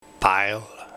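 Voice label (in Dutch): "Baijel", dialect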